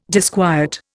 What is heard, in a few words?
"disquiet", Indian